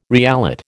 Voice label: "reallot", American